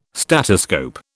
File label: "statoscope", British